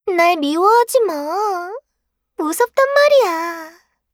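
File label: kill Player, Voice Line, Korean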